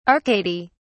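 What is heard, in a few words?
"arcady", British English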